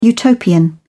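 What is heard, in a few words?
"Utopian", British English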